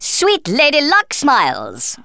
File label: missed, Speech Thespian; Worms Armageddon